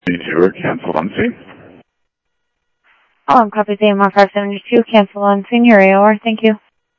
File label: Phoenix Fire, scanner; H-Scottsdale; PHXFD K7